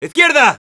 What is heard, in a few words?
head left, es; "¡Izquierda!"